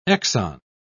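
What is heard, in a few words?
"exon", British English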